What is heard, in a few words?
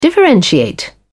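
"differentiate", British English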